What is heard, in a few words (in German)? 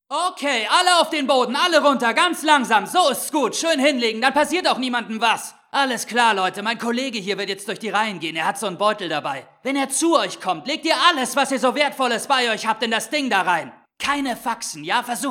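Heftig, Schauspiel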